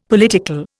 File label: "political", Indian; Dictionary